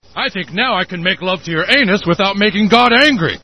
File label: angry; svencoop